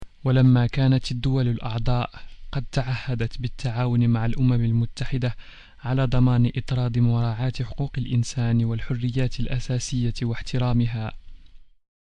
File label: Arabic